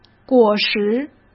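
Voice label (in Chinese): "果实", Mandarin Chinese; hsk; chinese-flashcards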